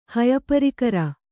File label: "ಹಯಪರಿಕರ", kannada